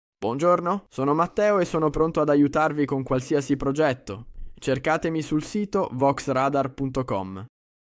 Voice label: italian voiceover

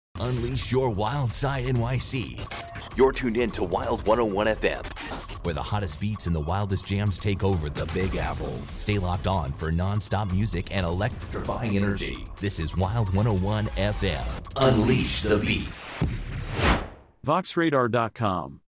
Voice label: radio, professional american male voice over

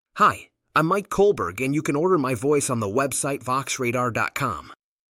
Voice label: en us

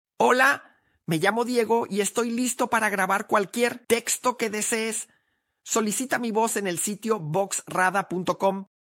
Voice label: spanish, spanish voiceover; spanish voiceover artist

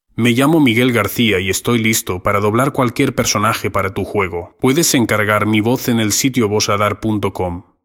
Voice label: ES, spanish voiceover; spanish voiceover artist

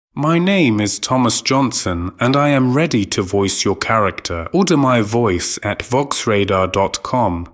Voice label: UK

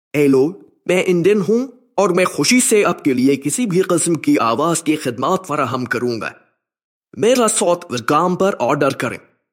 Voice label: Pakistan Urdu

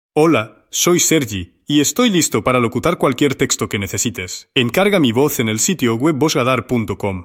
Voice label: ES

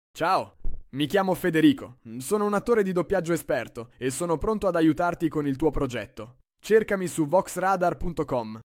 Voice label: italian voiceover artist

